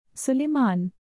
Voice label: "suleman", hindi; indonesian boy names

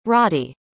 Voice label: "radi", arabic; indonesian boy names

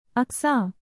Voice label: "Aksa", hindi; indonesian boy names

